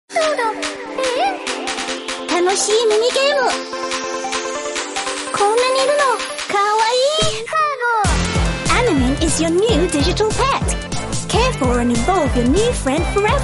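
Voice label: Japanese, female, character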